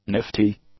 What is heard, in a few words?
"nifty", english; adjectives